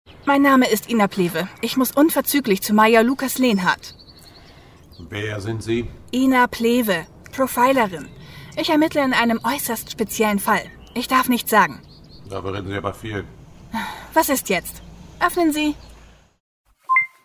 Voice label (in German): Hoerspiel selbstbewusst froehlich frech